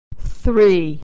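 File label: "three", American English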